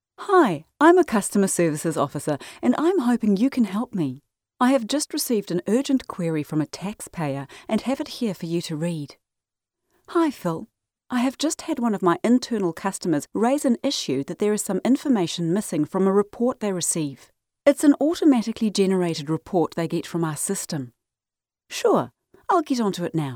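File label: English New Zealand, female, voice over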